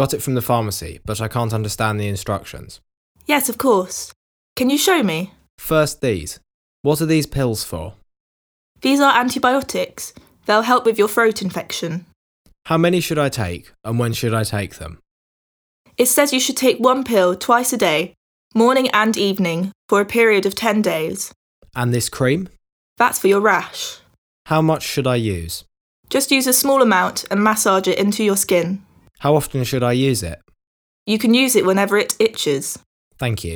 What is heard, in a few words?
Conversation; Bedside Manner Beginner